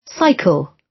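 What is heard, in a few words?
"cycle", British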